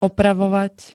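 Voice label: "opravovať", Slovak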